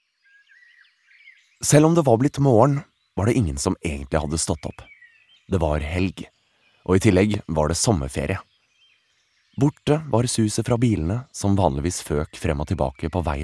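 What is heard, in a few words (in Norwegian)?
barnebok, Norwegian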